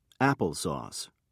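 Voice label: "apple sauce", British English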